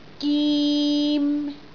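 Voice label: "gim3", Cantonese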